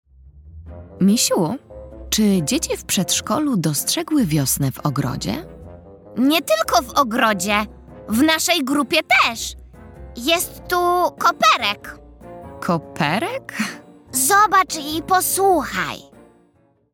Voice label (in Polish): narracja; czasopismo mis; wiosna; pani och ach koperek rozmowa